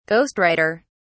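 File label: "ghost writer", British English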